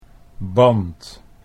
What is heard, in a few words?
"band", Dutch